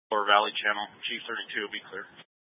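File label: Incident Tactical 2, Scanner audio recording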